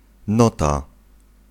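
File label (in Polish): "nota", Polish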